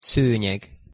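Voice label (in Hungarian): "szonyeg" Hungarian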